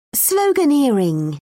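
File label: "sloganeering", British English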